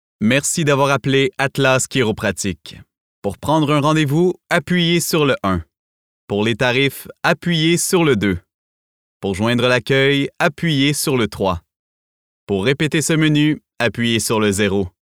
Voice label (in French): voix de boite vocale fr qc naturel informatif sobre pause adulte medium